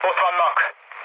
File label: enemy detected, speech hun; War Thunder